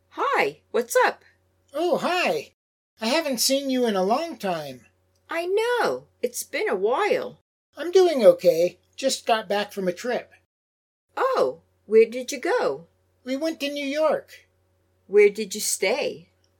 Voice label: Natural Dialog Complete